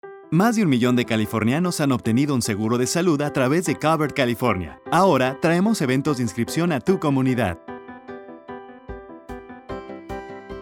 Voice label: Radio; Find Local Events; Donut CTA